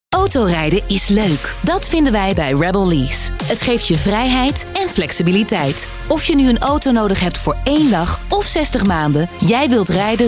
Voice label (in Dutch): radiospot; BNR